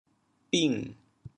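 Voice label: "bing3", Teochew, chaoyang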